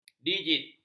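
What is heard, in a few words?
"digit", Malay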